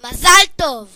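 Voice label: congratulation, Scottish Gaelic; gcompris-data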